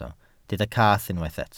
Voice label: "cath", Welsh

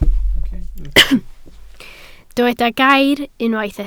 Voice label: "gair", Welsh

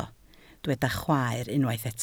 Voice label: "chwaer", Welsh

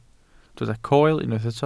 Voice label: "coel", welsh

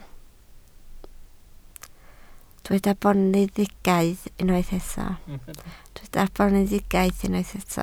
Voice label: "boneddigaidd", Welsh

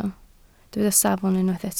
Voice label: "safon", Welsh

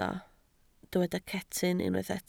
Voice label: "cetyn", Welsh

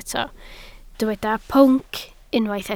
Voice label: "pwnc", Welsh

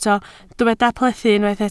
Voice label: "plethu", Welsh

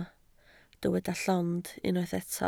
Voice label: "llond", Welsh

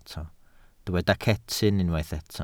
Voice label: "cetyn", Welsh

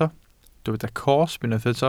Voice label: "cosb", welsh

